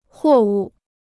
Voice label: "货物", Chinese

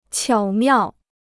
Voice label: "巧妙", Chinese

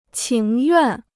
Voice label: "情愿", Chinese

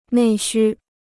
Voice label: "内需", Chinese

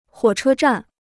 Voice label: "火车站", Chinese

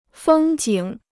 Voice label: "风景", Chinese

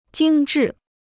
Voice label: "精致", Chinese

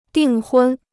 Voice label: "订婚", Chinese

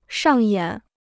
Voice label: "上演", Chinese

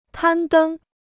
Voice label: "攀登", Chinese

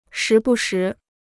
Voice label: "时不时", Chinese

